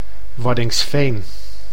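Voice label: "Waddinxveen", Dutch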